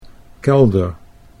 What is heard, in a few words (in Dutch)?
"kelder", Dutch; 'cellar'